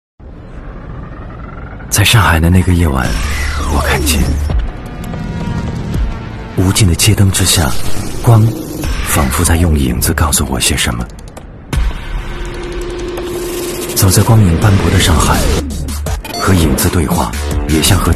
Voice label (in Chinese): 男,旁白; 时光剧场